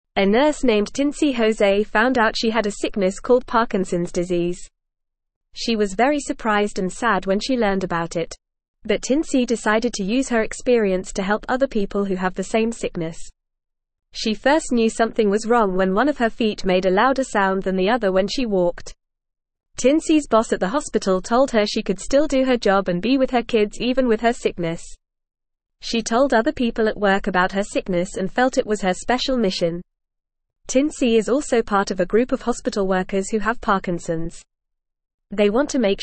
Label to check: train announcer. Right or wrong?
Wrong